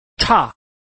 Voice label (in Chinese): cha4, Chinese